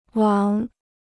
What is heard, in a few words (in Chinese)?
"王", Chinese